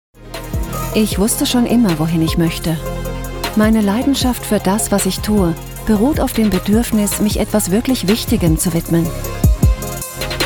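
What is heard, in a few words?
German, female, Imagefilm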